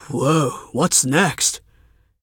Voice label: Emote Thinking; ZeeJay

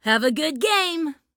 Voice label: Emote Good Luck; Gwen; Voice Pack

